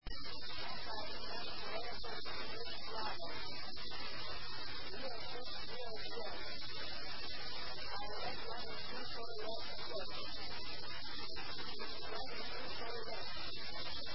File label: KIX ATIS B; osaka